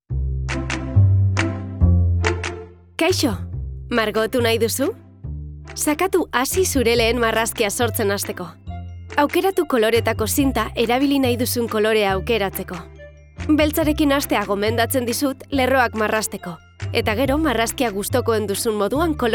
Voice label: E Learning Euskera

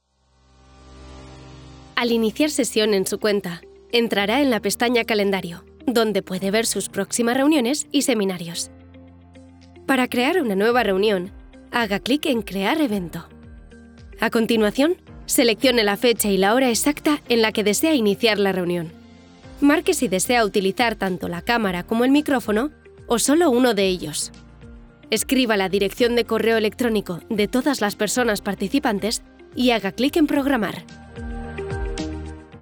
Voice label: E Learning Castellano

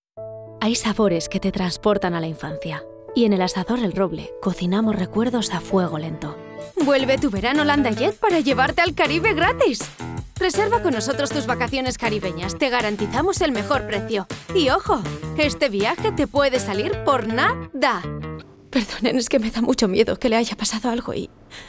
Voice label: Castellano

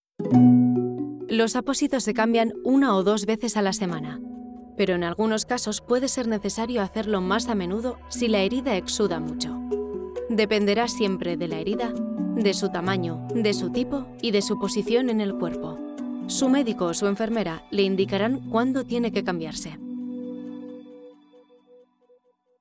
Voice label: E-Learning Castellano

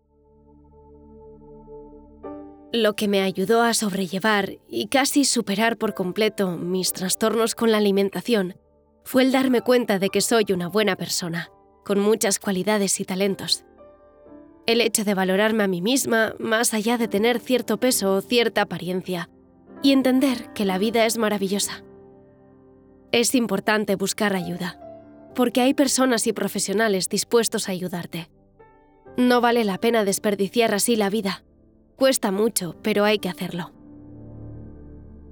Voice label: Documental Testimonio Castellano

